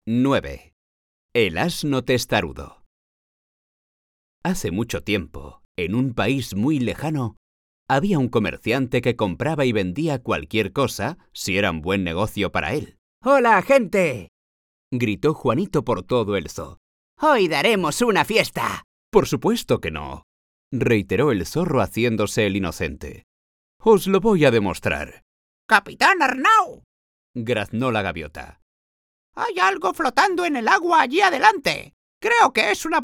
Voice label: audiobooks, spanish, male

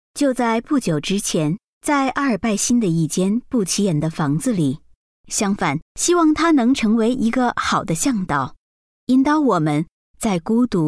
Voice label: CHINESE FEMALE

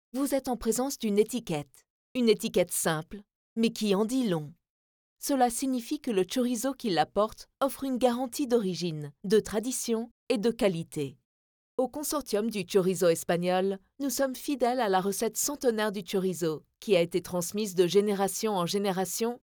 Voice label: corporate, French, female

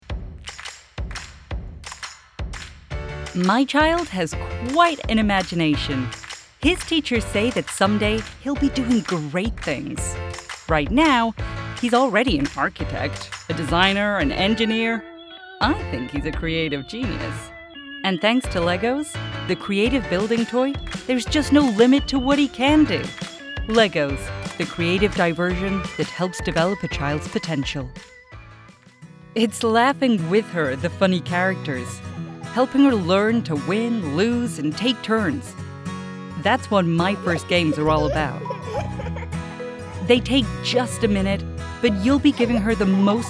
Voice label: American Commercial Montage, female

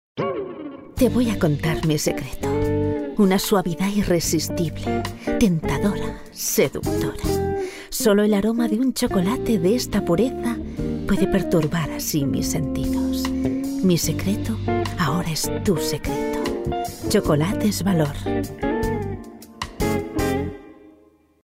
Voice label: commercial, spanish, female

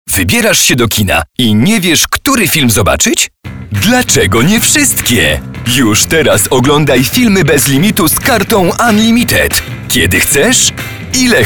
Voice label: POLISH MALE

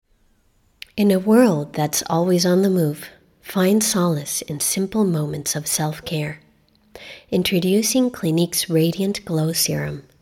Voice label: commercial, English US, female

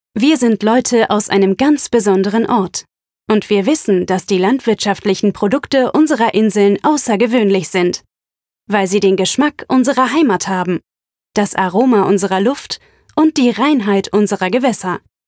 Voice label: commercial, German, female

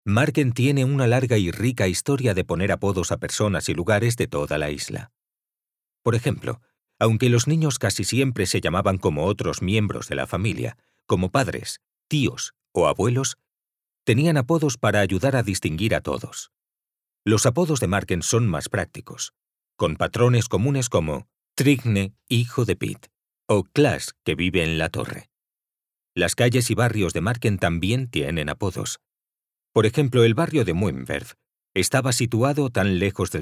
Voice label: audioguide, spanish, male

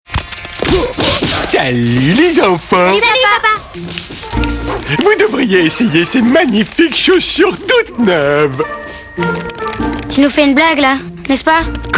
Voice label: character, FRENCH MALE

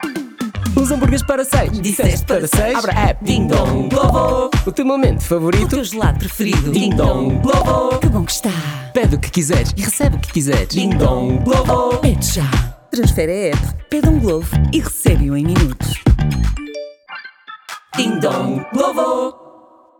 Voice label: COMMERCIAL, Portuguese, male; informative

